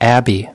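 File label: "abbey", spoken word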